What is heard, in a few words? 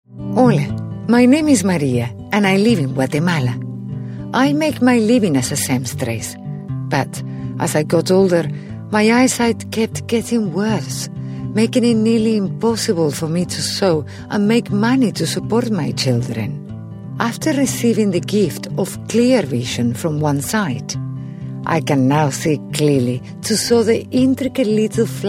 english medical narration; locución y periodismo; presentadora de radio